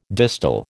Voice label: "distal", American

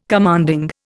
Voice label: "commanding", Indian

